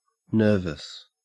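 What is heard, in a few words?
"nervous", English, Australian accent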